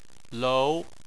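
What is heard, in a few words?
"lou", Chinese, second tone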